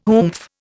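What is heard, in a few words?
"oomph", Indian English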